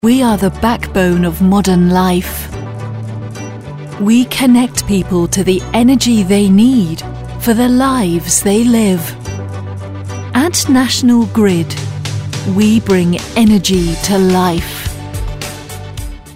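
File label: British commercial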